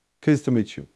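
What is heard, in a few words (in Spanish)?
Voice Acting, Spanish, male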